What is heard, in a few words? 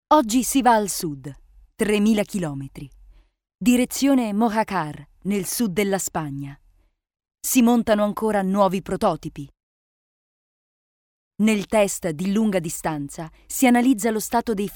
italian female narration